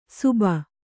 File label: "ಸುಬ", Kannada